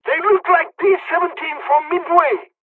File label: dialogs turkish; War Thunder